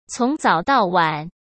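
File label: "从早到晚", Mandarin Chinese; chinese flashcards; hsk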